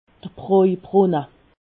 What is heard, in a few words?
"brojbrunne", alsace